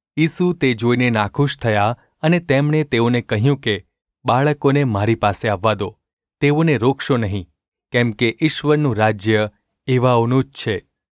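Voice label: gu ulb mrk, Gujarati; mrk 10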